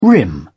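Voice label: "rim", British English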